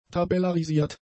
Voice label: "tabellarisiert", German; adjectives